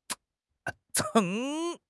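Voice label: "秤", Mandarin Chinese; HSK6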